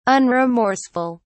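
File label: "unremorseful", British English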